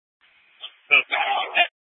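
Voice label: police department Dispatch, scanner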